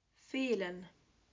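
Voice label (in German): "Fehlen", German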